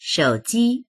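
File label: "shou3ji1", Mandarin Chinese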